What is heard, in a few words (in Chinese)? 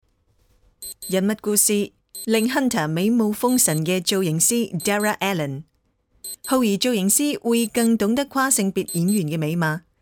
港粤英试音